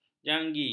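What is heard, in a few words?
"janggi", Malay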